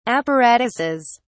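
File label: "apparatuses", British English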